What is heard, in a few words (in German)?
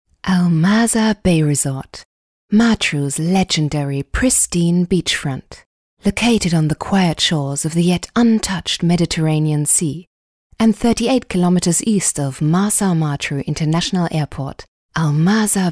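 British English, Kommentar, Freundlich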